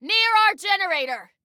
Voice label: Warn Enemy Near Our Generator, Female

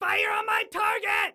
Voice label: Fire On My Target, Excited Guy

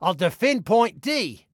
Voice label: ILL DEFEND, Male; Male 02

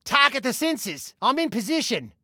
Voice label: Target Sensors, Male; Male 02

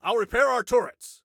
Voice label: Self Repair Turrets, Soldier Male; Soldier Male 02

